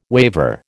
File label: "waiver", American